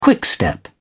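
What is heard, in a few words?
"quickstep", British English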